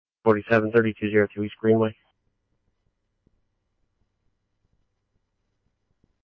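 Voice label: scanner, Phoenix Police Department; PPD-200 A; RWC; Thompson Peak High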